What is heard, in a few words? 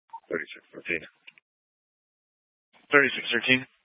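Police 1 Dispatch, Scanner audio recording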